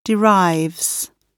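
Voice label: "derives", British English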